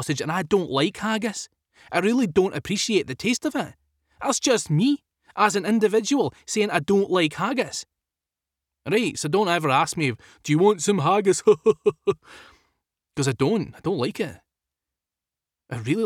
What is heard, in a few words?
Accent Sample Scottish